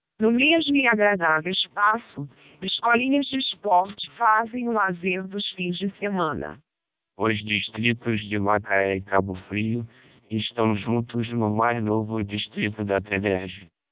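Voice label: Portuguese short, speechsamples, MELPe 600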